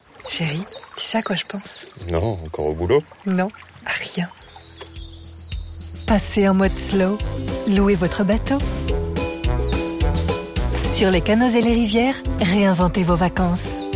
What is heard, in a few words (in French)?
Publicite; Federation nautique